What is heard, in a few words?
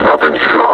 reconnoiter search, vo combine suppressor; Half Life Alyx